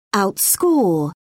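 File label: "outscore", British English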